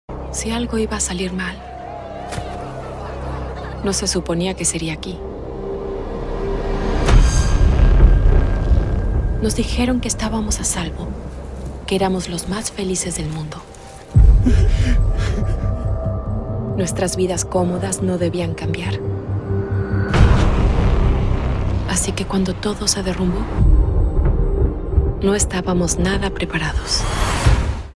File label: Dubbing